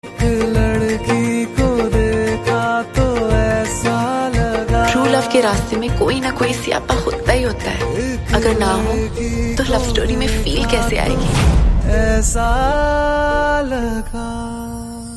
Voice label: Dialogue Ringtone; Ek Ladki Ko Dekha To Aisa Laga Movie (2019)